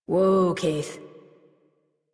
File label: Horse Stop Walk Adult; Warframe; Duviri